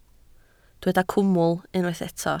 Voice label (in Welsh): "cwmwl", Welsh